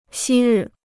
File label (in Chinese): "昔日", Chinese